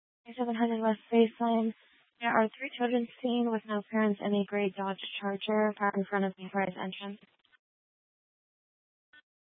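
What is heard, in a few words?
Tempe police department, scanner; Rgnl Wireless Co-op (RWC)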